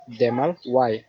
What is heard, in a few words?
"go away", wolof